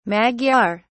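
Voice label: "magyar", British English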